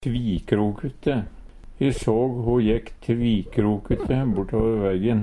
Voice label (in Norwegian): tvikrokute, Numedalsmål; E såg ho gjekk tvikrokute bortover veigen.; Adjektiv